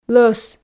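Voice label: "лӧс", Komi, female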